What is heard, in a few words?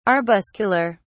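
"arbuscular", British English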